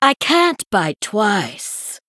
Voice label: Female Sanlayn Emote ERROR I ATTACKED